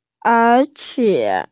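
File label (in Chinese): "er3qie3", Mandarin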